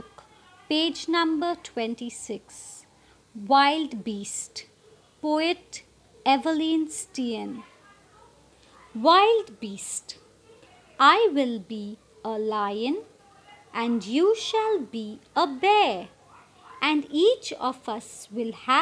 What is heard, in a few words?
Recitation